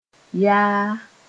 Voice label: "yaa", Mooré; to be